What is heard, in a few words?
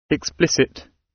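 "explicit" British English; The arts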